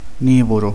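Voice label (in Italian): "nero", Italian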